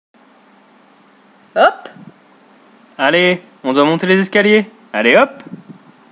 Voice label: Hop, the strange noises french people make